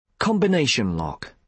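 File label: "combination lock", British English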